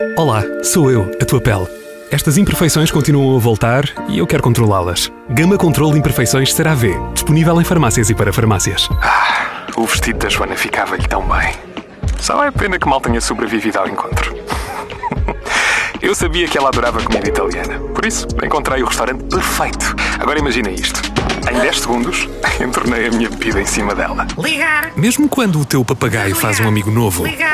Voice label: Voice Overs Commercial